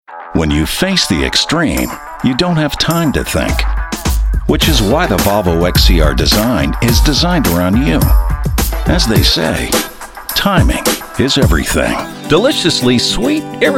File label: commercial; zipzipfel